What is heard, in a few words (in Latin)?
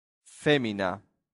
"femina", Latin; santillana